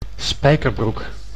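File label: "spijkerbroek", Dutch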